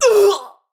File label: Death Ranged, Battle Barks; Tau; Tau Dax